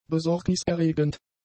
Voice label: "besorgniserregend", german; adjectives